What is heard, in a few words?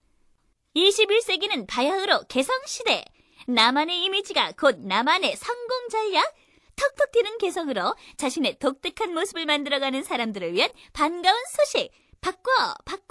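Korean female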